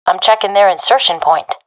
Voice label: at enemy spawn, professional fem; vo; Counter Strike Model Pack; CS:GO

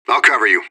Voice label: radio bot reponse cover request; fbihrt

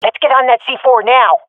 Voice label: cover defuse, swat fem; vo; Counter Strike Model Pack; CS:GO

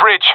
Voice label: bridge, professional epic; vo; Counter Strike Model Pack; CS:GO

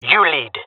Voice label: following friend, jungle male; player vo; Counter Strike Model Pack; CS:GO

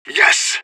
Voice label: radio bot cheer; agents; fbihrt

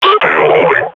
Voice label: combat idle, grunt; Half Life Model Pack

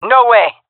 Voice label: disagree, jungle fem; vo; agents; Source Model Packs; Counter Strike Model Pack; CS2; jungle fem epic

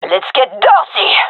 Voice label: attacking, gendarmerie fem; vo; agents

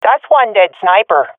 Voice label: i killed sniper, swat fem; vo; Counter Strike Model Pack; CS:GO

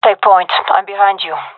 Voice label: following friend, gendarmerie fem; vo

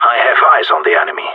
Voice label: radio enemy spotted; Counter Strike Model Pack; CS:GO; gsg9

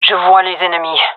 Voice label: sees enemy, gendarmerie fem; vo

